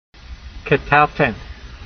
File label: "qetalten", hebrew language; qatal